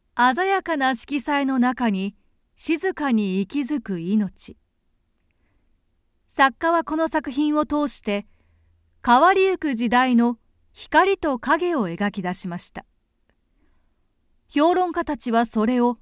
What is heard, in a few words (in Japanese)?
美術館音声ガイド